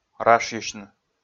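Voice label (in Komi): "рашйысьны", Komi, male; audio dict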